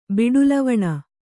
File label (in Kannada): "ಬಿಡುಲವಣ", Kannada